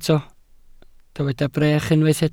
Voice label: "brech", welsh